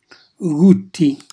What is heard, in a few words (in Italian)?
"gutti", calabrese; cucinacalabrese; glossario